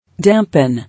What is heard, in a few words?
"Dampen", English